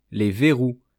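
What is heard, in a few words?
"les verrous", French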